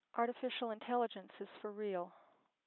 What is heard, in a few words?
16k G712 Filtered Narrowband